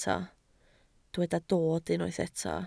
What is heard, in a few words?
"dod", Welsh